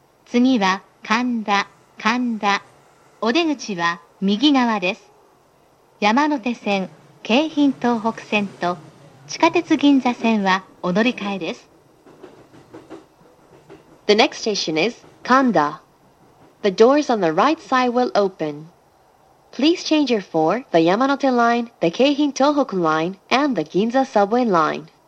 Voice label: kanda, announce; chuo rapid